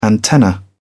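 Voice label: "antenna", British English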